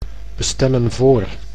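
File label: "bestemmen voor", Dutch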